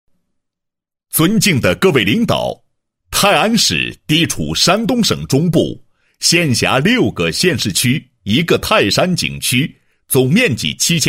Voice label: 男 工作汇报; 公安消防支队工作情况汇报

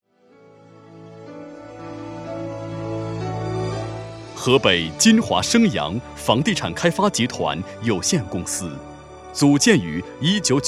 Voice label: 男 专题, 国语男声配音; 金华升阳

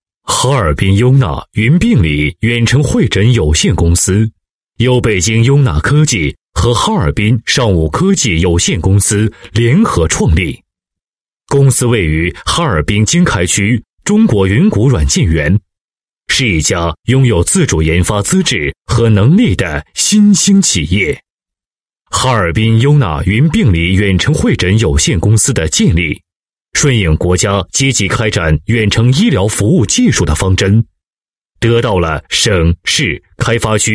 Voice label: 男 专题 云病理公司, 国语男声配音

